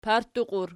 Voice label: "partuqi", Archi

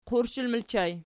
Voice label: "qurshi", Archi

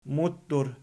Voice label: "muttur", Archi

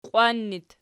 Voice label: "qiwan", Archi

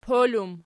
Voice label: "pol", Archi

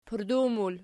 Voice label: "pardaw", Archi

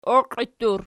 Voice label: "oqiittur", Archi

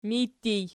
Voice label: "mij", Archi

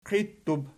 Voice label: "qiittub", Archi

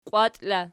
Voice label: "qiwat", Archi

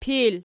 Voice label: "pil", Archi

